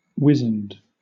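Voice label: "wizened", British English; pronunciations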